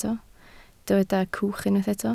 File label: "cwch", Welsh